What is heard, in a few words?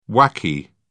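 "whacky", British English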